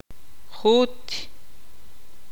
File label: tzutujil; gusano